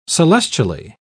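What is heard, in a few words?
"celestially", British English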